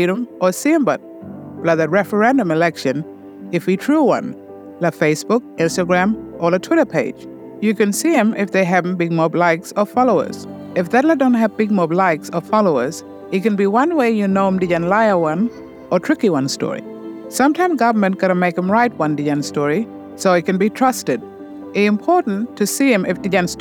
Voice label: West Kimberley Kriol Radio mix; indigenous; AEC Misinfo